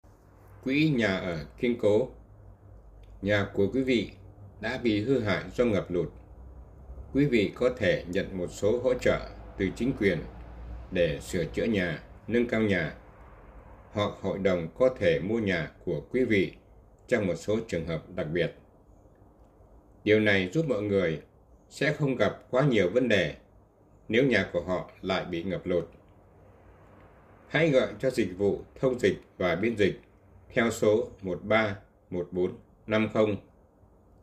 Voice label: vietnamese